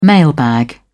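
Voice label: "mailbag", British English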